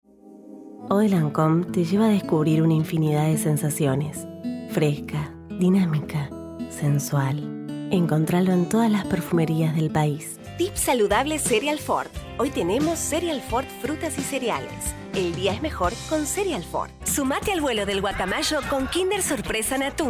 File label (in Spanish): comerciales